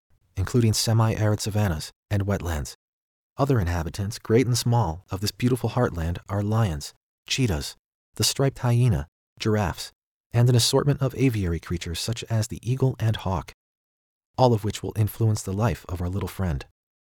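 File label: educational nature doc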